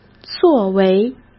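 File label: "作为", Mandarin Chinese; chinese-flashcards; hsk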